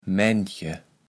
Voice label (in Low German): "Maendche", kaevels platt